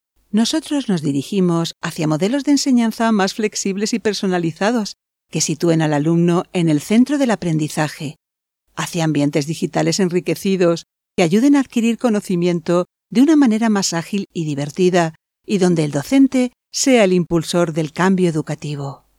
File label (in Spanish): corporativa